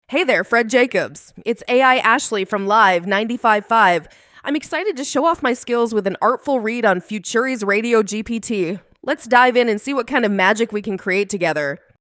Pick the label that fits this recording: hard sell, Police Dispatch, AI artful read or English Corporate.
AI artful read